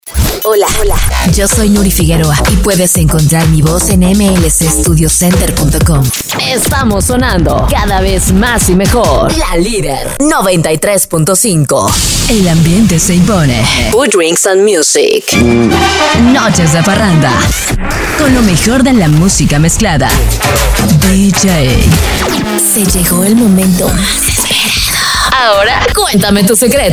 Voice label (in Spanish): imagen, voces